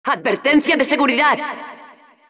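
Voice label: announcer security warning, Spanish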